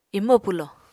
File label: "imo pwlo", Apatani